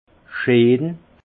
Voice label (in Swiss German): "scheen", alsace; vin; beau